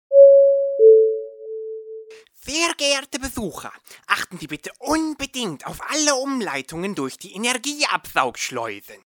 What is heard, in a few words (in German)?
durchsage